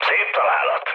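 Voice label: Hungarian bomb success mood low, speech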